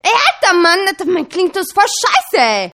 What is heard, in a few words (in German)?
ey alter mein klingelton ist vo, ringtones